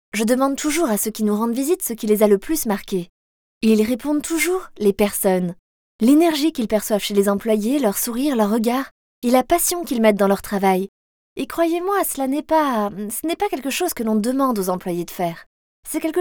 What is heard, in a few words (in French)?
film entreprise voix off video